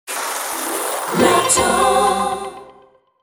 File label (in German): LOTTO, werbesprecherin; german voice-over talent for commercial female